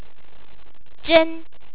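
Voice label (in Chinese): "zhen", Chinese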